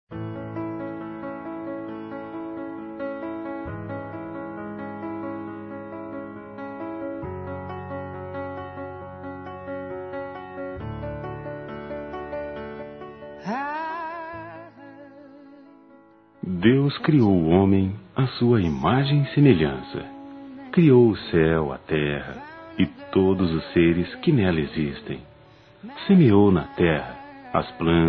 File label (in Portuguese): Aniversário Pai M; Telemensagens 2017; Aniv Familiar